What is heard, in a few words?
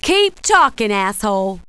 cuss, female, profanity; kingpin